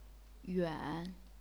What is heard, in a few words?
"yuan3", chinese pinyin